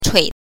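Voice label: "chui", Mandarin Chinese pinyin, neutral tone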